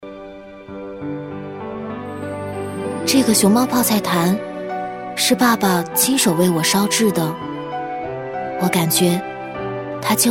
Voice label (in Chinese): 女 广告 中国味泡菜自然素人, putonghua